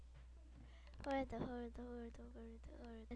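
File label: "hold", spoken word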